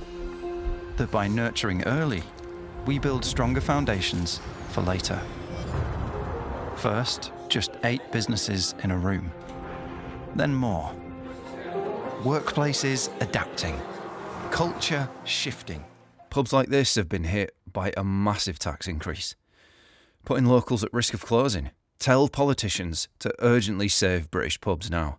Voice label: Northern English Accents